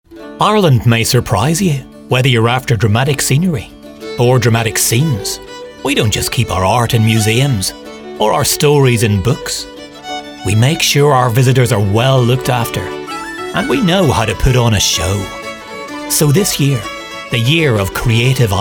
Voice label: commercial, Northern Irish actor and voiceover artist; 54-year old Northern Irish actor and voiceover artist; trained at RADA